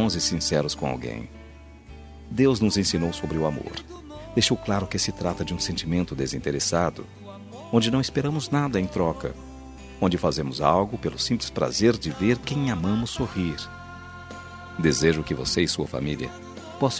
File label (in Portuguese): NATAL ROMANTICO M